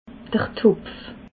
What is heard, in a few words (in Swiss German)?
"topf", alsace